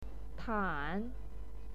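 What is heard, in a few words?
"tan", Mandarin Chinese, third tone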